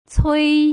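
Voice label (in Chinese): "cui", Mandarin syllable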